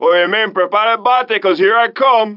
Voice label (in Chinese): 拉丁裔海滩中年男性; 罪恶都市